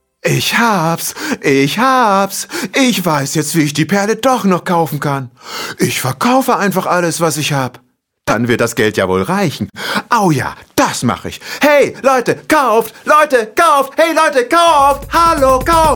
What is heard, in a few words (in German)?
hoerspiel